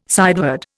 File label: "sideward", Indian